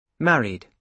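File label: "married", British English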